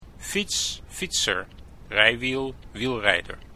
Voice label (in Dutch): "fiets", Dutch